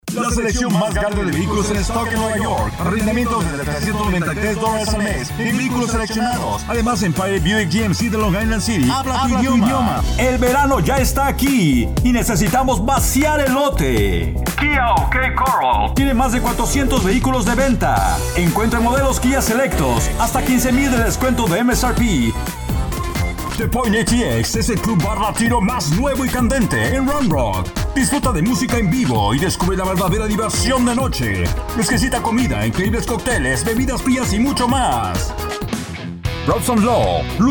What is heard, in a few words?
Spanish